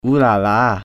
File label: "ooh la la", English